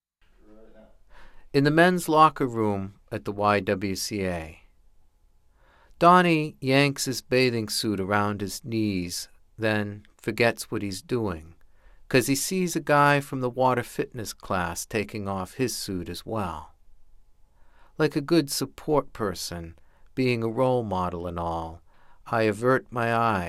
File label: Audiopoem; In the Mens Locker Room